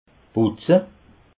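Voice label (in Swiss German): "putze", Alsatian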